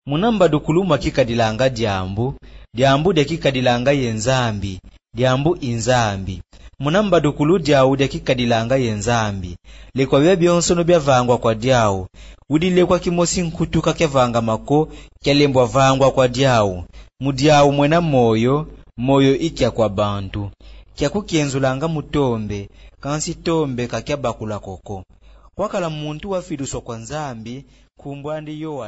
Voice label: new testament kikongo; kng koongo kikongo audio bible

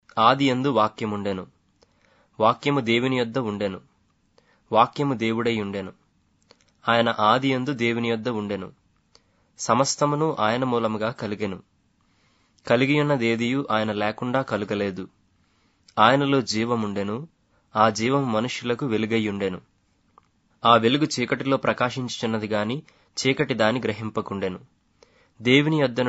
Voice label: telugu, new testament telugu audio bible

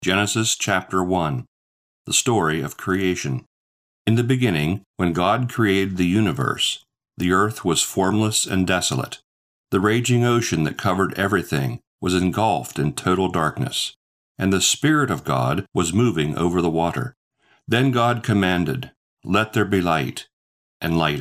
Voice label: english, audio bible; gnt

